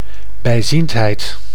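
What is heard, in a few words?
"bijziendheid", Dutch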